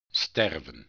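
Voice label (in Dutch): "sterven", Dutch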